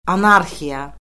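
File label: "anarxiya", Azerbaijani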